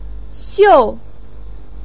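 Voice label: "xiu4" Chinese syllable, pinyin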